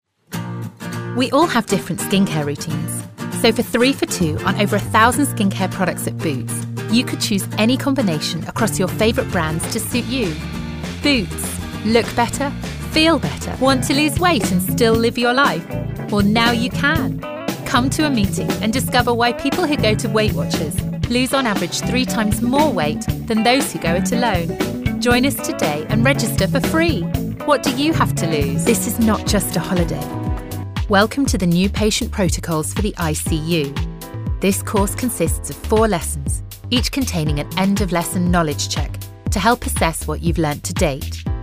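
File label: English female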